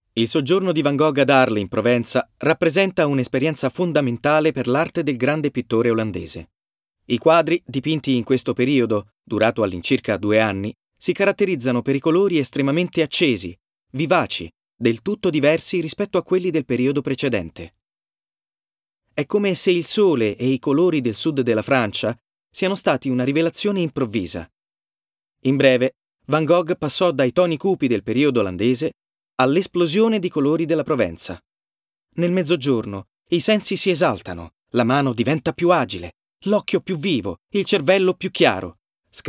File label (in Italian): Documentario It, male, Italian; documentary